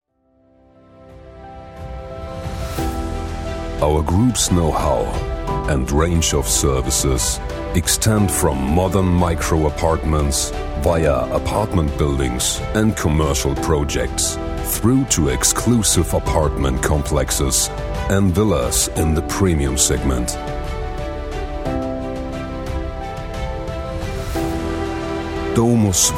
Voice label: engl; domusvivendi

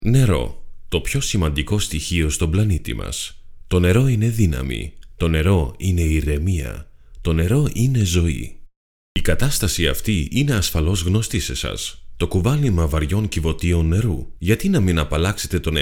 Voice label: greek

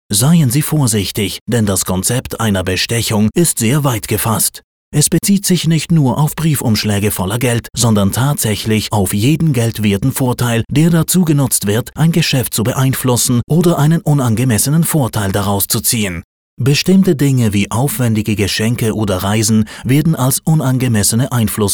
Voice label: e-learning

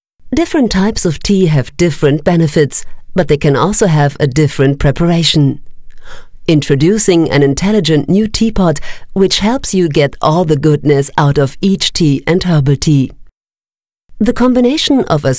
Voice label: english

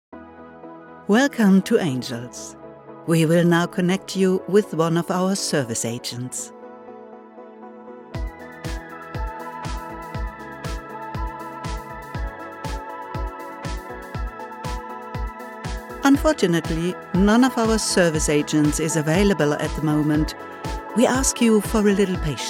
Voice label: telefonansage en; angels

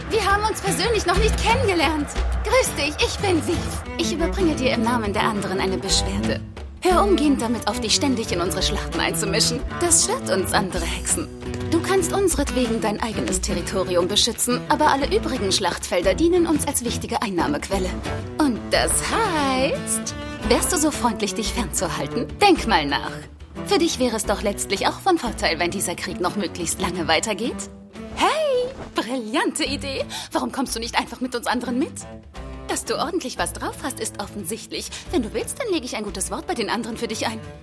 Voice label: anime; junketsunomaria

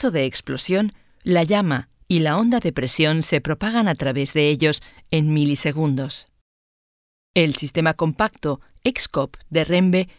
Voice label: es female corporate industrial; exkop

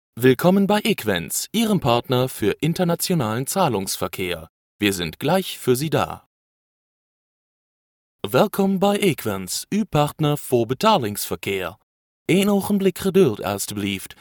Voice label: onhold de nl fr en; equens

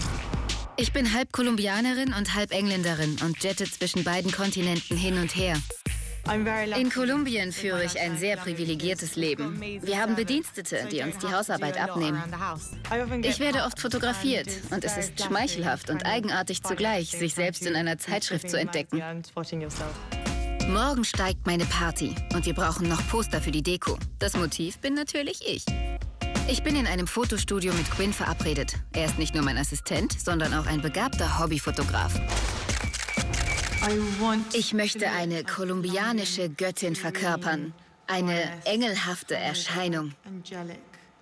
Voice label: voice over

